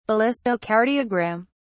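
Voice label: "ballistocardiogram", British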